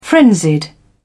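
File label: "frenzied", British English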